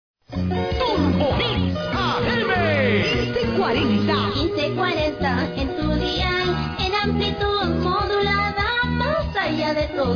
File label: radio am jingle; Peru; 1540; Radio Turbomix